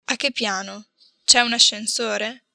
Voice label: "achepiano", italian